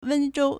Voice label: "wen1zhou1", Chinese